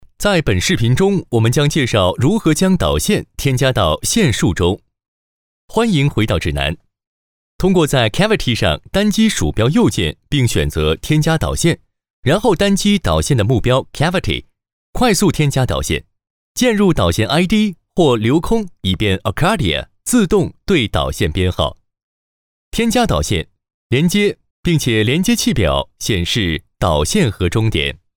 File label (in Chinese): 男 中文 课程讲解